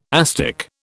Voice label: "asdic", British

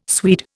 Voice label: "Swede", Indian

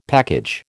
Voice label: "package", American

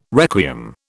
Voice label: "requiem", British

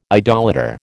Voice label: "idolater", American

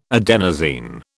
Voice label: "adenosine", British

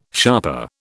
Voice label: "sharper", British

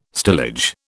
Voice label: "stillage", British

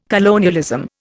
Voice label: "colonialism", Indian

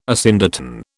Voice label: "asyndeton", British

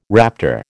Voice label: "raptor", American

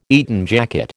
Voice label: "Eton jacket", American

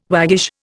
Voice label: "waggish", Indian

